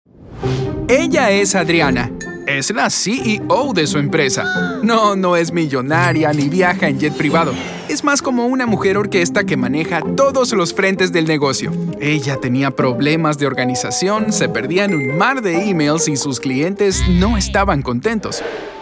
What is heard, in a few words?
spanish latin american explainer videos